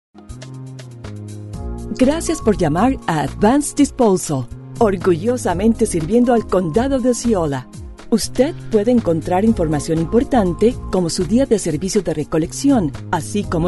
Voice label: ON HOLD Spanish

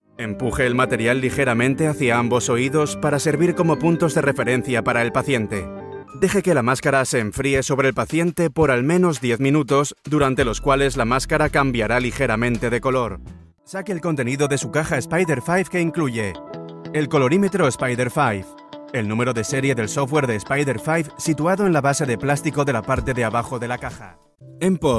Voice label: Elearning Tutorial

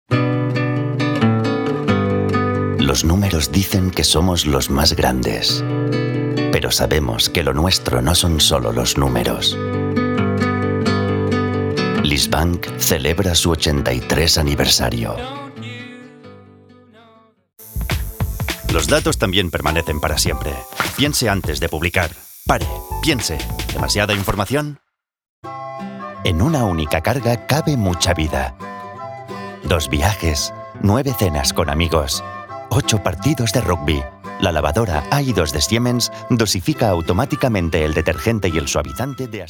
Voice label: spanish Spot TV Commercial

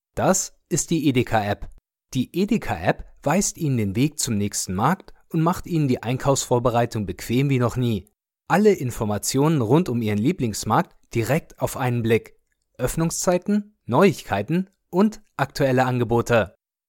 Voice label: Commercial App

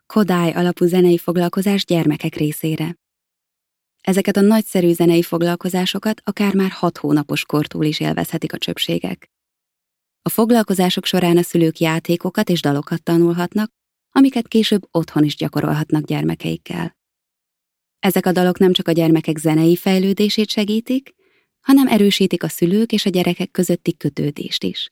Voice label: Advert Hungarian

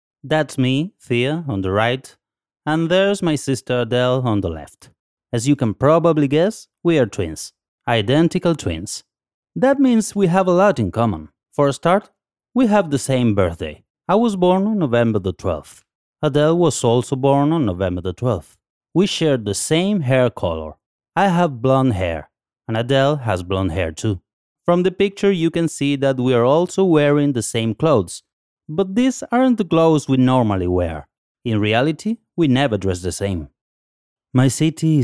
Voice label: ENGLISH

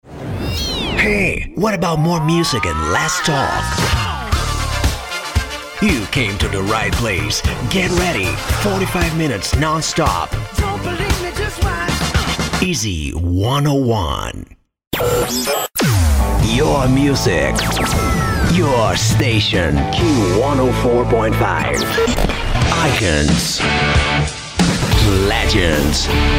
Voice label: RADIO IMAGING IN ENGLISH

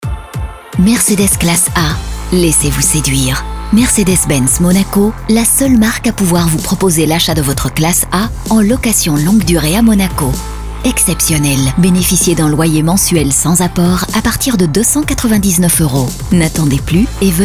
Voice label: soft serious; Mercedes

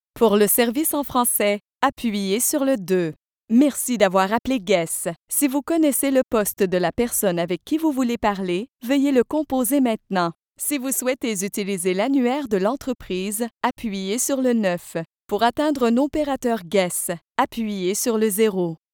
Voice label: Phone Message; Guess

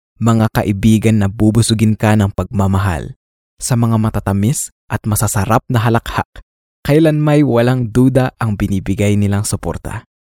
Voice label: Filipino Emotional

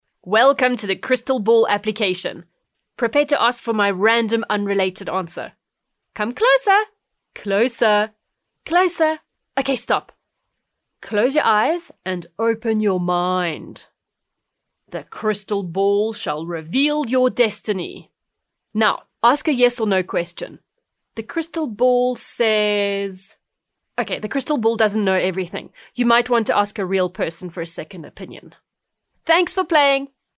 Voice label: English South African Short Conversational

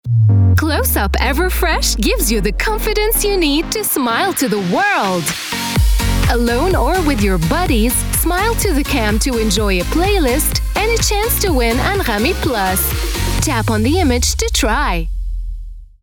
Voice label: American English Web Ad; Closeup Everfresh

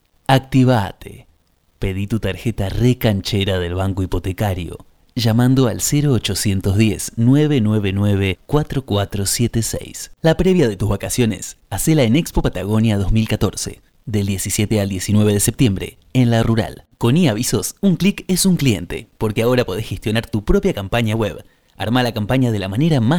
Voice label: COMERCIAL

